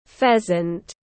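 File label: "Pheasant", English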